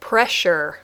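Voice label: "pressure", English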